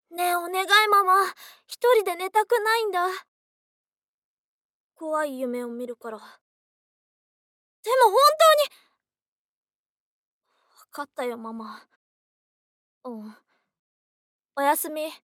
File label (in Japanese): 男の子